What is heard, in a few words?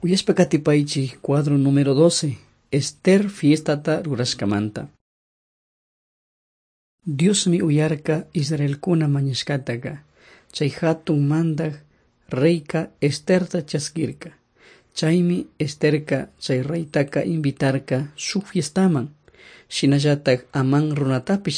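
quichua chimborazo, drama; on trial for god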